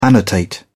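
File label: "annotate", British English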